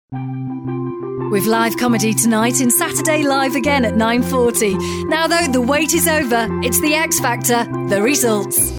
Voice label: female english live results national continuity; english southern accents; itv1 x factor